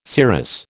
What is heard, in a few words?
"serous", American